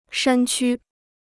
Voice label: "山区", Chinese

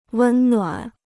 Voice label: "温暖", Chinese

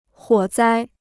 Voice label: "火灾", Chinese

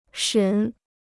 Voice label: "审", Chinese

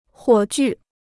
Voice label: "火炬", Chinese

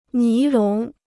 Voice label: "尼龙", Chinese

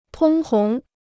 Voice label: "通红", Chinese

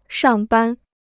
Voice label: "上班", Chinese

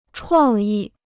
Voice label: "创意", Chinese

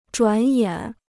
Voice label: "转眼", Chinese

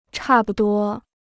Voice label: "差不多", Chinese

